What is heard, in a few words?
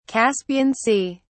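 "Caspian Sea", British English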